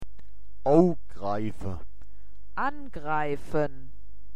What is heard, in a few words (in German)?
ougreife, mundart; woerterbuch